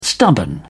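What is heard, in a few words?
"stubborn", English, uk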